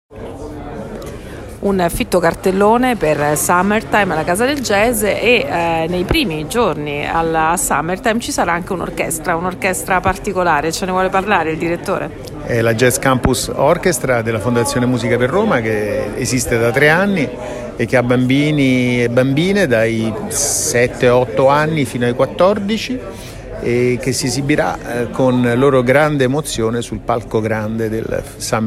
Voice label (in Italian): Intervista